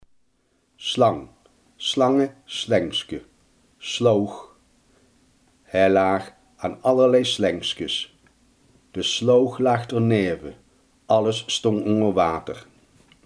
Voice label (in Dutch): "slang", Limburgs